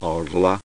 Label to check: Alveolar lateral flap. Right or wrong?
Right